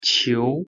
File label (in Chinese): pronunciation zh 球